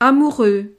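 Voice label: "amoureux", French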